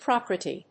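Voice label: "procaryote", English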